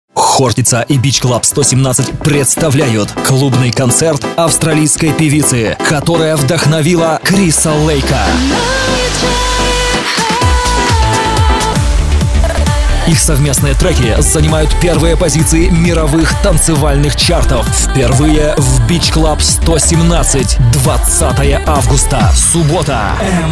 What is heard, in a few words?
rus, dictory men